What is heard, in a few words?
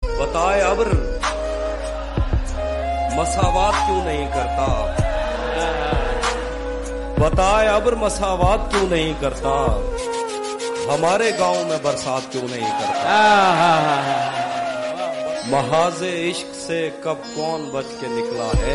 hindi poetry heart touching